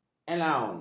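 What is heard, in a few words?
"elaun", Malay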